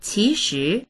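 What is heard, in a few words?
"qi2shi2", Chinese; qíshí; 其实; 実際は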